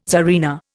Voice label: "tsarina", Indian

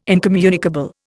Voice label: "incommunicable", Indian

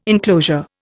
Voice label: "inclosure", Indian

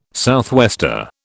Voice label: "southwester", British

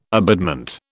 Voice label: "abutment", British

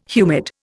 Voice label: "humid", Indian

